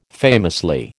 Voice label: "famously", American